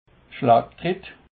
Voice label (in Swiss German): "schlaagtrett", alsace